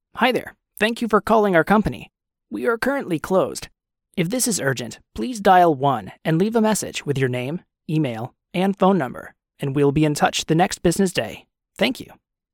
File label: phone system - adult